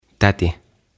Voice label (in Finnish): "Täti" Aunt